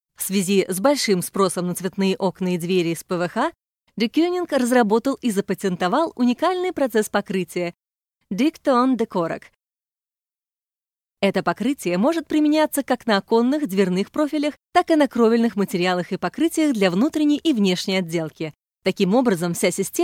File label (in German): Sprecher Native speaker